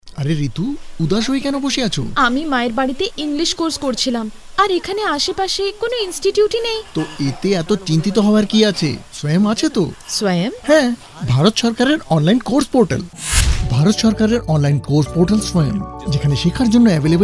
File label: Spot Bengali